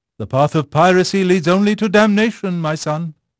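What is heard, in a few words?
English male; Pirates Of The Caribbean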